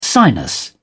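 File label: "sinus", British English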